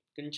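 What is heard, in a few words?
"kencar", Malay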